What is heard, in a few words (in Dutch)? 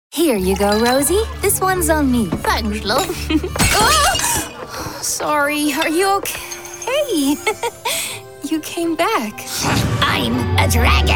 Animatie US-UK